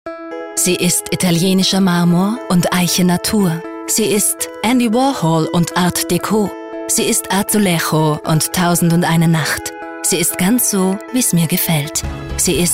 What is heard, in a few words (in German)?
Frau OFF